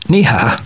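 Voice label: "no", male; TALKNOW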